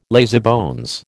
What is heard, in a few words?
"lazybones", American